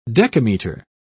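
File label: "decameter", English, British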